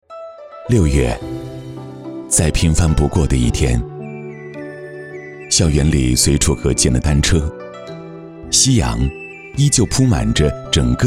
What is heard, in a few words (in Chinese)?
男 独白; 六月